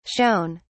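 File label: "shewn", British English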